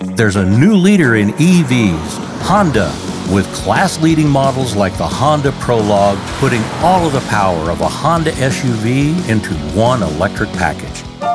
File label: Male Voice-Over Actor